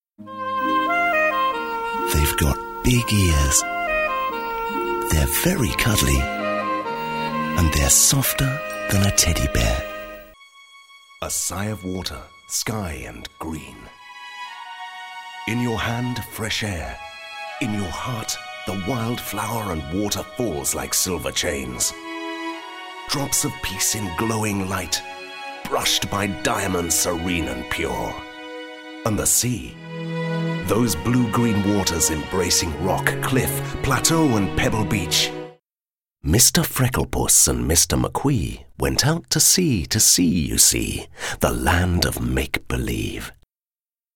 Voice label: ENGLISH UK, MALE